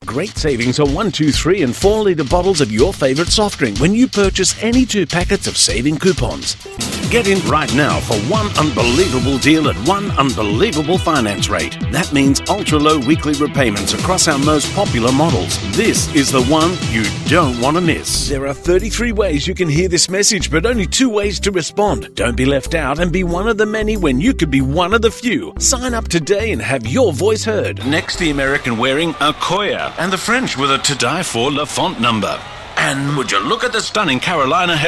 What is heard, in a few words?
Commercials